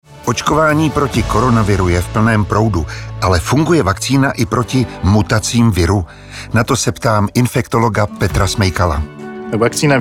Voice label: Radio Spot